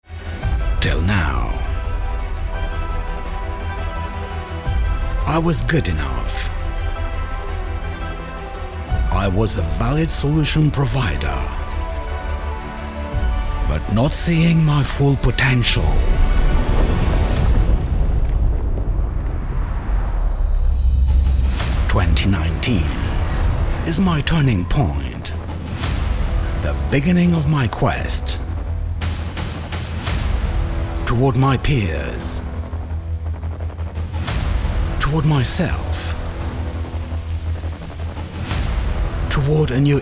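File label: French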